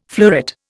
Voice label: "fleuret", Indian

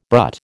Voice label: "brut", American

